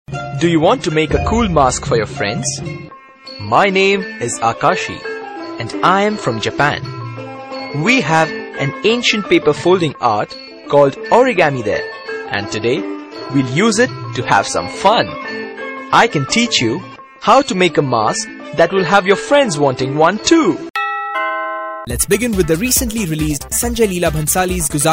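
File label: English Indian Male BOY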